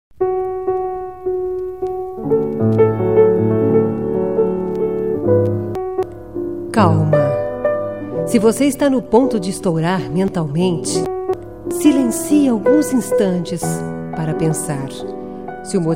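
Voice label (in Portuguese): Religiosa Mensagem pra Acalmar, Feminino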